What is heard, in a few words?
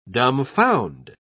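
"dumfound", British English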